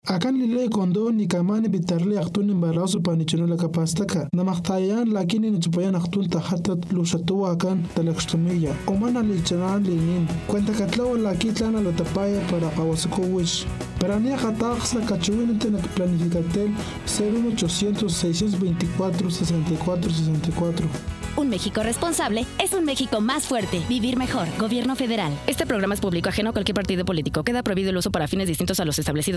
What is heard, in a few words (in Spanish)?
Totonaco